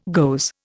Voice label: "goes", Indian